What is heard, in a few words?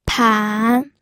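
"pan", Mandarin Chinese, third tone; pinyin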